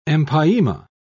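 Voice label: "empyema", British English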